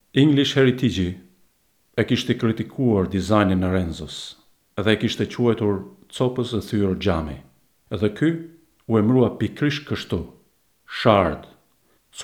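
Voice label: Albanian General; GoLocalise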